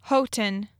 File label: "Houghton", Michigan pronunciation